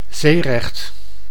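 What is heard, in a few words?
"zeerecht", Dutch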